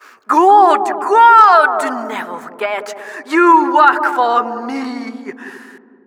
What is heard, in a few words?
Announcer kill